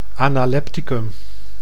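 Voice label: "analepticum", Dutch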